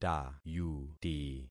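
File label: "tayuti", concatenated syllables; Affixing experiment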